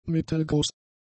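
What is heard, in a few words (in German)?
"mittelgroß", German; adjectives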